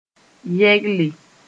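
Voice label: "yɛgle", Mossi; to go beside sth.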